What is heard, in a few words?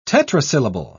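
"tetrasyllable", English, British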